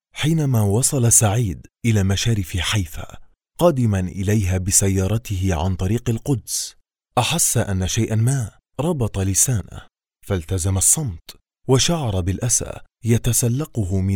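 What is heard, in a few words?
Arabic, Saudi Arabia, male, voice over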